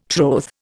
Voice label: "troth", Indian

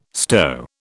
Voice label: "stow", British

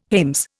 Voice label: "hames", Indian

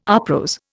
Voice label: "uprose", Indian

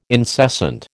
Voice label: "incessant", American

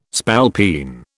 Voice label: "spalpeen", British

